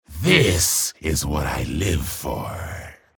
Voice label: chatter positive; frag